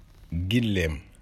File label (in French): "Chameau" Wolof